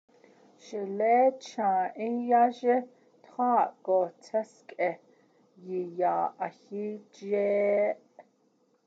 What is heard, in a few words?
My three puppies are lying with part of their bod, Navajo